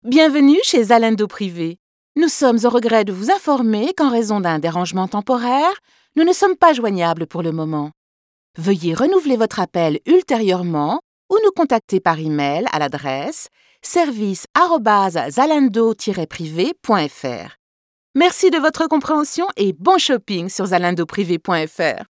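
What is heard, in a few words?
French telephone; telefon; sprecher; franzoesisch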